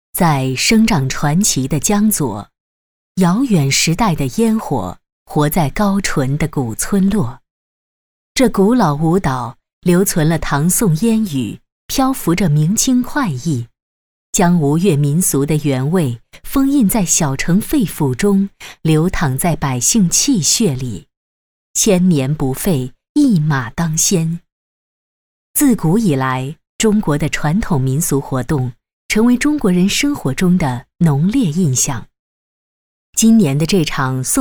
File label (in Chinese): 女 纪录片; 大马灯